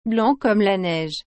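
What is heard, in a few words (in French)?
"blanc comme la neige", French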